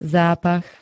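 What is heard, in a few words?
"zápach", Slovak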